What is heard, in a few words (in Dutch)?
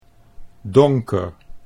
"donker", Dutch